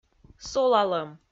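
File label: "солалӧм", Komi-Zyrian, female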